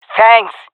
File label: thankful, jungle fem; vo; agents; Source Model Packs; Counter Strike Model Pack; CS2; jungle fem epic